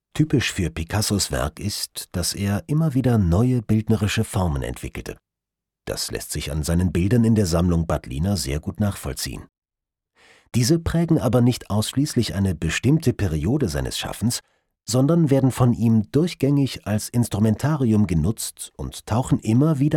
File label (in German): audioguide; batliner picasso